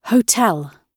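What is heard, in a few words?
"hotel", British English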